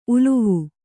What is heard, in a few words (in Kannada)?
"ಉಲಿವು", kannada